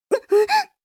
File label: h voice; おっぱい図鑑